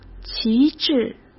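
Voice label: "旗帜", Mandarin Chinese; chinese flashcards; hsk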